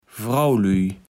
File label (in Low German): "Fraulüij", Platt